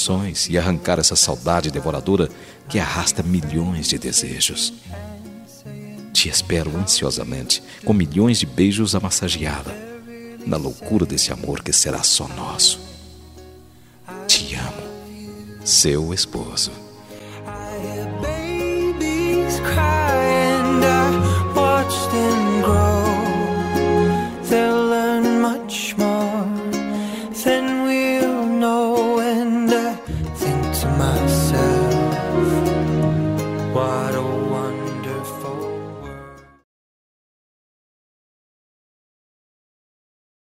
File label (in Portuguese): ROMANTICA DISTANTE PARA ESPOSA, Telemensagem